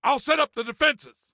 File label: Self Task Defenses, Soldier Male; Soldier Male 03